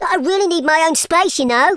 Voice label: goaway, Teenage Angst; speech